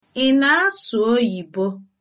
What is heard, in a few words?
do you speak english, igbo